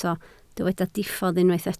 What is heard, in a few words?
"diffodd", Welsh